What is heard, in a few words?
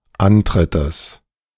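"Antrittes" German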